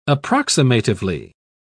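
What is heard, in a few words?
"approximatively", British English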